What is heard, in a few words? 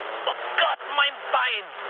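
German damaged pilot mood low, speech; War Thunder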